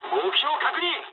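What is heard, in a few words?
near waypoint mood high, speech japanese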